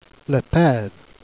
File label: "pethe", Jèrriais